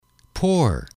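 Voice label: "poor", English, male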